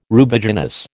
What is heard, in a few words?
"Rubiginous", American